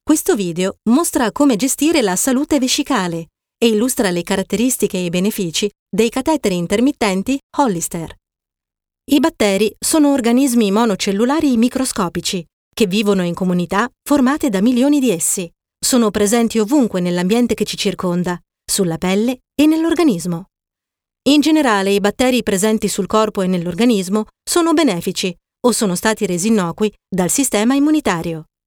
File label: italian training e-learning